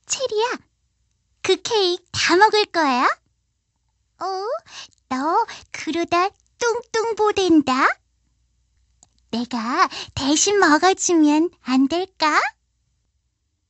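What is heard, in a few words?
Korean female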